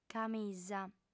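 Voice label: "camisa", Sardinian, female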